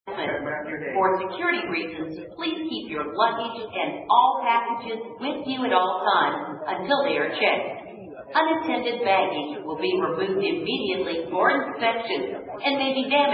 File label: Security Announcement